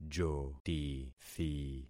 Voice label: "jotithi", concat syllables; Affixing experiment